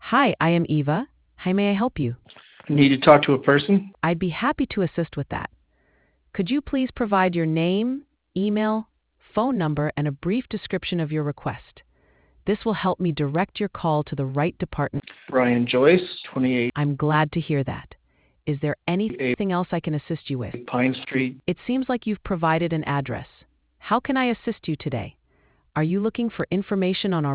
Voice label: conversation